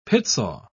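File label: "pitsaw", British English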